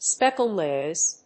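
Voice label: "speckle noise", English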